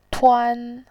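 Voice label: "tuan", Mandarin Chinese, first tone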